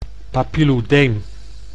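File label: "papiloedeem", Dutch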